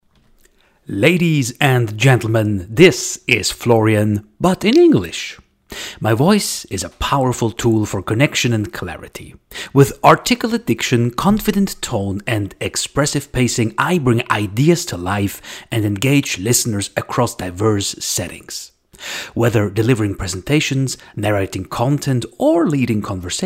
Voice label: OFF CH EN